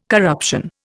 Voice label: "corruption", Indian